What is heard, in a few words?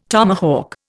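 "tomato", Indian; Dictionary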